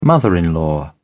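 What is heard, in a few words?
"mother in law", British English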